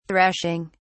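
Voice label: "threshing", British English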